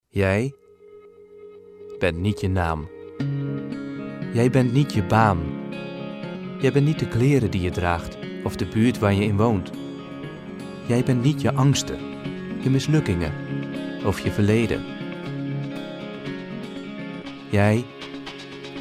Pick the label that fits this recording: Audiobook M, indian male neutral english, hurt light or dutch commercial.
dutch commercial